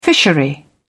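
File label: "fishery", British English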